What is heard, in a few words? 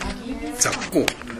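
"zakko", Minamiyamagata dialect Japanese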